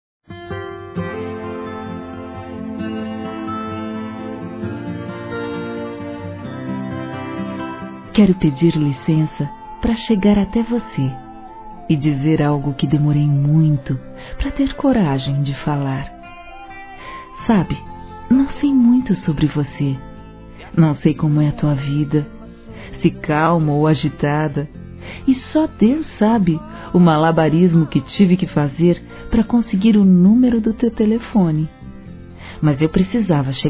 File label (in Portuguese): Paquera Feminino; Telemensagens 2017